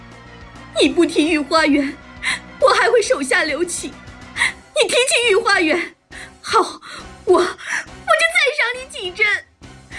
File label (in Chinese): voice, 文本转语音模型; 仿紫薇音色文件; 你不提御花园，我还会手下留情。你提起御花园。好，我就再赏你几针。; CosyVoice